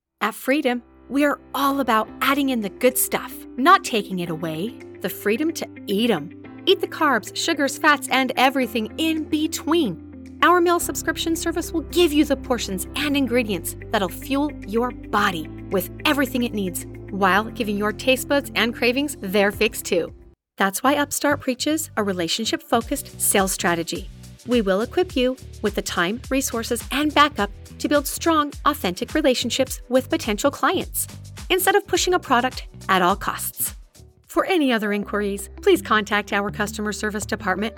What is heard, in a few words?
Voice Over